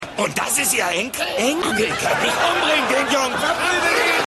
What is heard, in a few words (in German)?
Mann